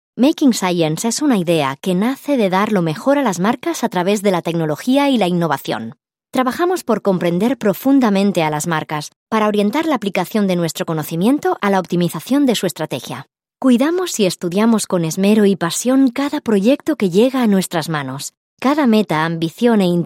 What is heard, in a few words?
spanish corporate videos